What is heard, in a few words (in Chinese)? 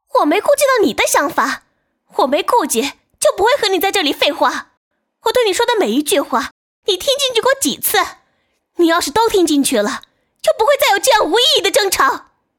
跋扈 女声